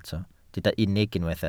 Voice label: "unig", welsh